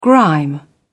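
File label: "grime", British English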